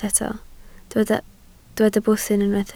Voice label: "bwthyn", Welsh